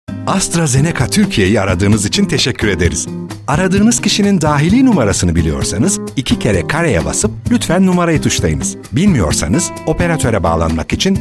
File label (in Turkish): santral, IVR Seslendirme; Bulut Santral Seslendirme; BiberSA Prodüksiyon; ivr anons seslendirme